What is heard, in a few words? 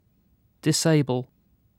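"disable", British English; pronunciations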